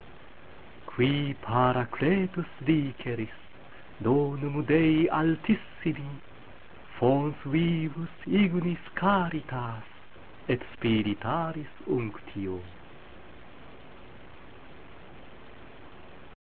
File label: Veni recitatio, latin